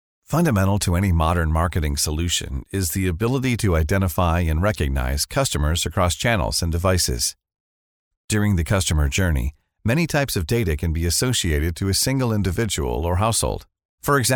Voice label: eLearning Male, Narration, eLearning

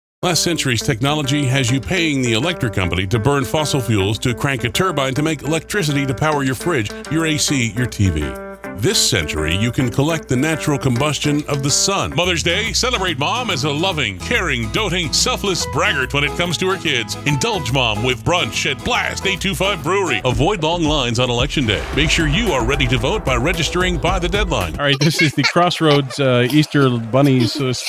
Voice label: Commercial Male; commercial voiceover

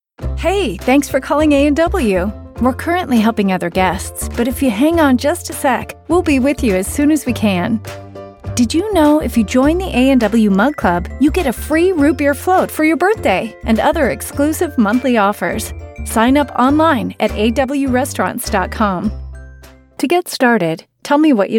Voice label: telephone

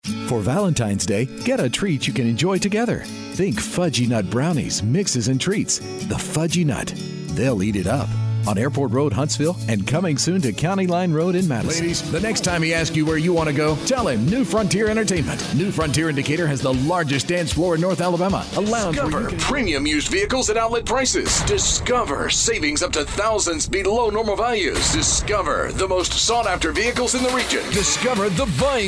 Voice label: Commercial Male; commercial voiceover

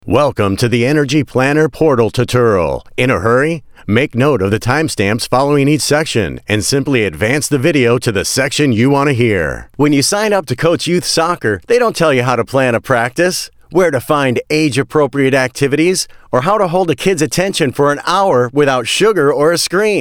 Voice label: eLearning Male; commercial voiceover

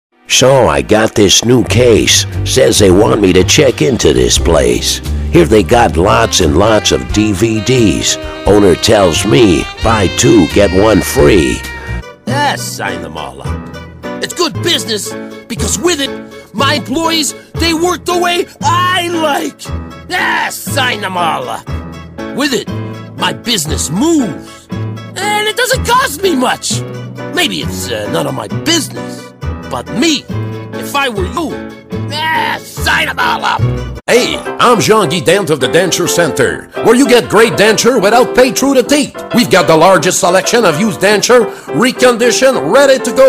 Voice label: Characters Male, commercial voiceover

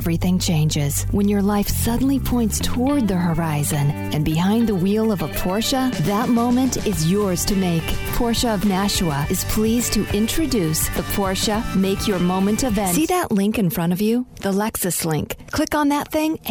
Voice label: Auto Dealer Female, commercial voiceover

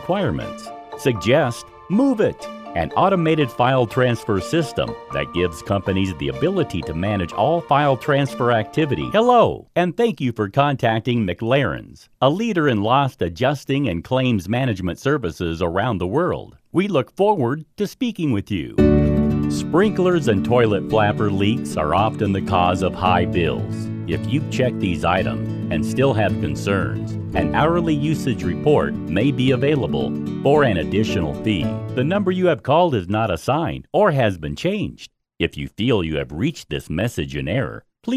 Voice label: Phone Hold Male; commercial voiceover

